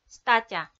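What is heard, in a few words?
"статя", Komi, female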